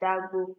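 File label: "dagbo", Nigerian Pidgin, female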